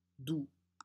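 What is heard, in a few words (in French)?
"doux", French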